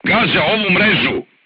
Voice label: voice message art command oneself, crew tanks serbian